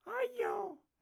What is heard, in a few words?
Pig Chef, Voices